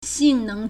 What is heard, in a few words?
"xing4neng2", Chinese